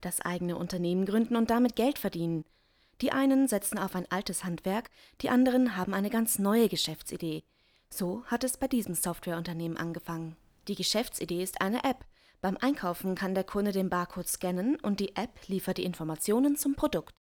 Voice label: E-Learning